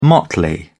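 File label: "motley", British English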